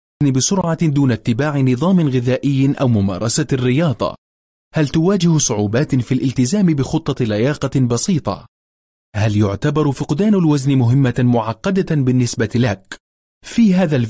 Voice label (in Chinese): 阿拉伯语男 外语 小语种 自然